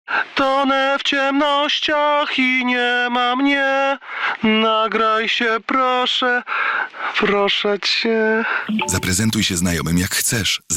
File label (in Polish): poczta głosowa; MUZA